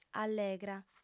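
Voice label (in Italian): "allegra", sarda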